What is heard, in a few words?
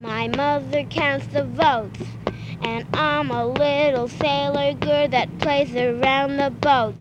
Political street speakers and kids